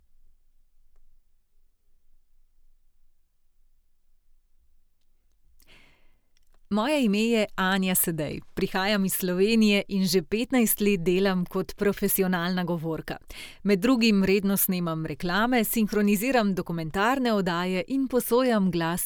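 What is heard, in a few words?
Slovenian; generico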